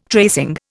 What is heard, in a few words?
"tracing", Indian